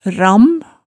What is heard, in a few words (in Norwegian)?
"ramm", Hemsedal